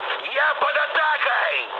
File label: aircraft damaged, mood med, speech ru